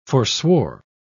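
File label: "forswore", English, British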